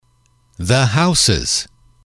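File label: "to the houses", English, male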